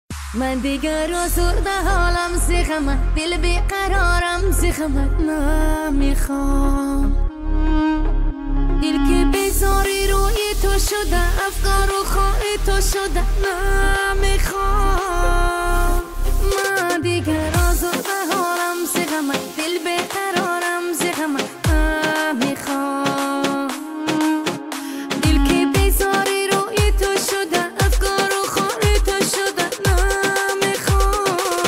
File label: rap